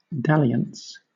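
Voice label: "dalliance", British English; pronunciations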